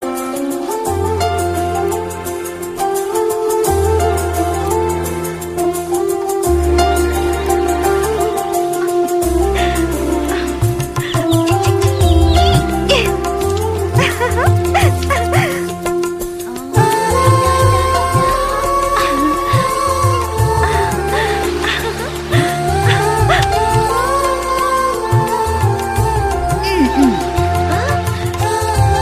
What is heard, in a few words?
Dream Girl Voice